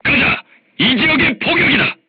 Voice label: voice message art shooting warning, korean, crew tanks